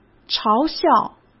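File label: "嘲笑", Mandarin Chinese; chinese flashcards; hsk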